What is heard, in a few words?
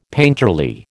"painterly", American